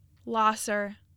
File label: "Lahser", Michigan Pronunciations